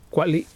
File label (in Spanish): "bueno", Mexicano Temixco Xoxocotla